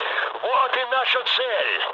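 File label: near waypoint, mood med, Russian; War Thunder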